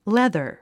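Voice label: "leather", English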